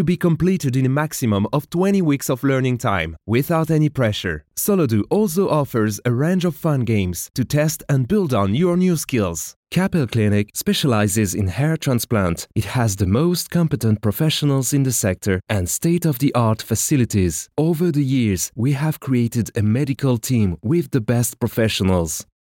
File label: french male corporate english